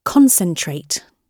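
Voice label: "concentrate", British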